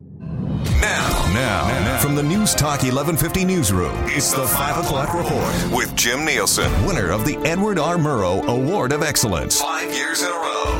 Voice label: imaging, male voice talent